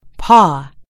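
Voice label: "paw", British English; gcompris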